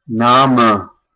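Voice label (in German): "Name", German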